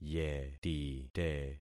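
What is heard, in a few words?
"yetite", concatenated syllables; Affixing experiment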